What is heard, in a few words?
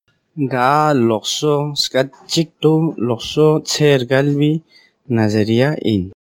balti